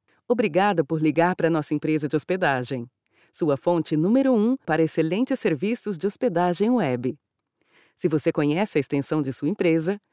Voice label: portuguese brazilian ivr